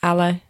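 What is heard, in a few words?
"ale", Slovak; vyjadruje odporovací vzťah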